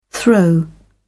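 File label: "throw", British English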